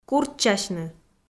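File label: "курччасьны", Komi, female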